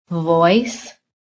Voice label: "voice", phonetic